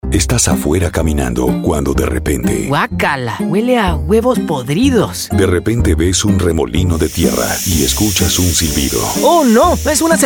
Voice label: Radio Mix Spanish